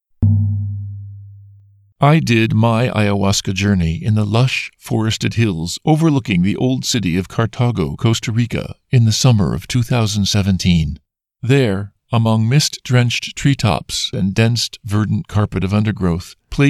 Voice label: audiobook eng

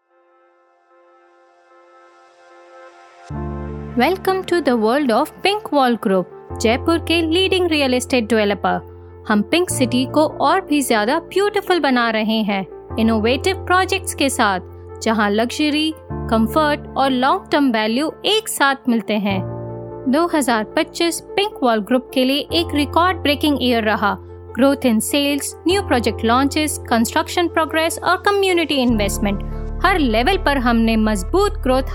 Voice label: Promotional Hindi

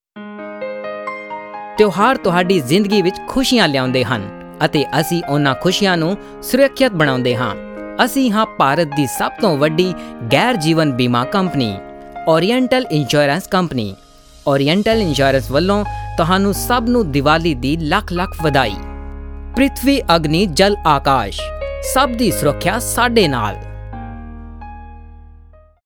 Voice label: Promo, Punjabi

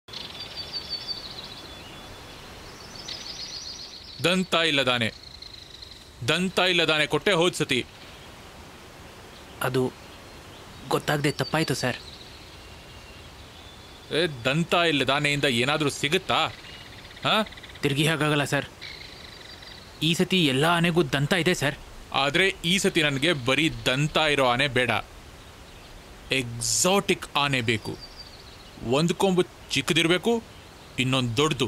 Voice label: Ad Kannada

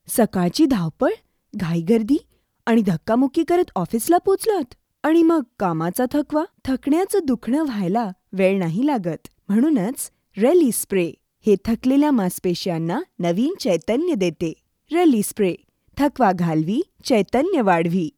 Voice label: Marathi Commercial

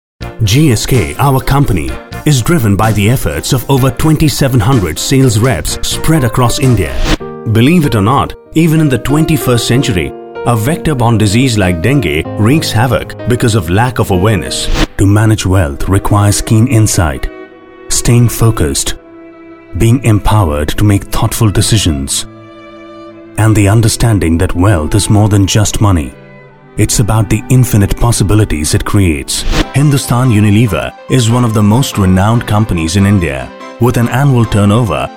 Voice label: Corporate Narration English

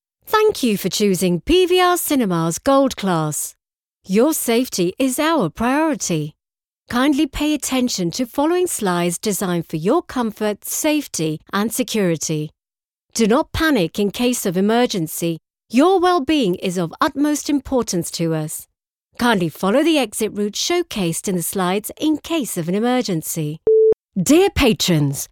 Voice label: announce

